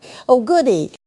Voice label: happy, speech; fertig classic games; ethel